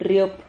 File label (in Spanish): "rriop", Silabas